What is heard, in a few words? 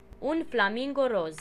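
pink flamingo, Romanian; animals; birds; tuxpaint-stamps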